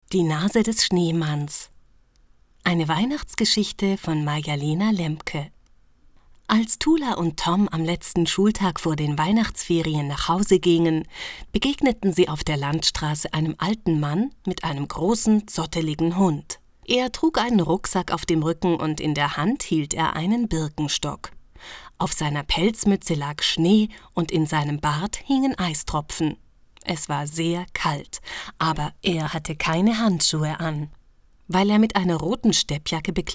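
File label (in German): kinderhörspiel weihnachten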